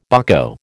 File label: "bucko", American